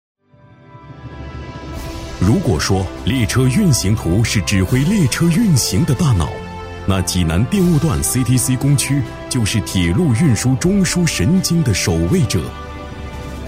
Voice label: 男, 专题配音, 大气激昂; 大气主流; 大气浑厚

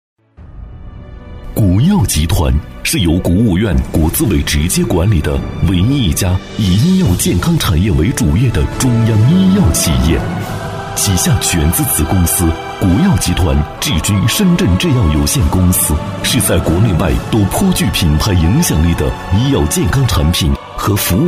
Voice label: 男 企业宣传 磁性厚重; 一池云锦＆国药致君合作

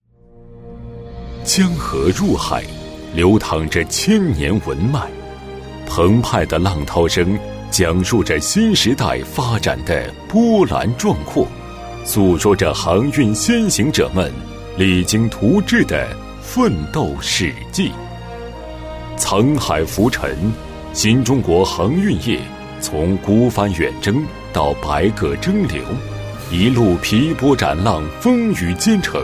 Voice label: 男 大气专题 大气壮阔; 《航运大国》

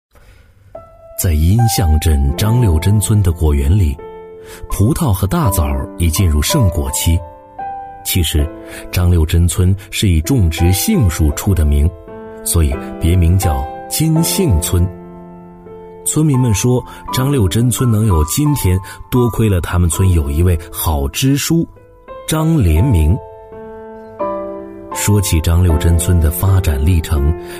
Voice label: 男 人物叙述 讲述; 感动商河; 男B3-磁性稳重

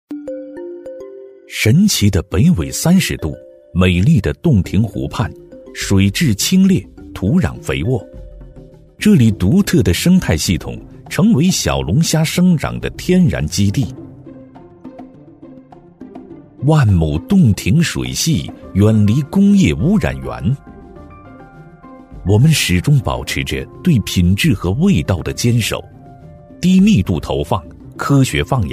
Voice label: 男, 纪录片, 小龙虾, 舌尖感觉; 稳重底蕴 舌尖感

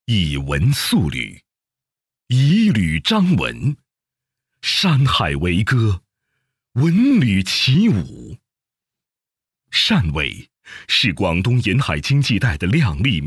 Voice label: 男, 城市宣传片, 高端磁性; 汕尾; 高端磁性 成熟厚重

